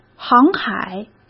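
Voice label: "航海", Mandarin Chinese; chinese-flashcards; hsk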